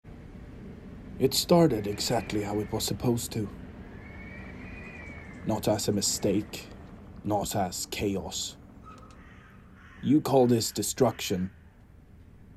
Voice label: Animation Scandi accent, Swedish, English Neutral, Versatile, Friendly, Bold, Warm, Expressive